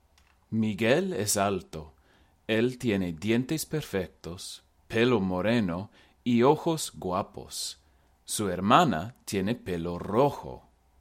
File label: Pronunc